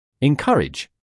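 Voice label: "encourage", British English, male; everyone-can-use-english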